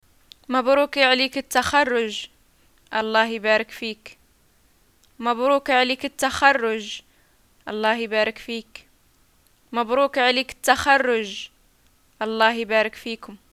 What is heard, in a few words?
greeting graduation, arabic